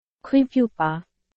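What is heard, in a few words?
Burmese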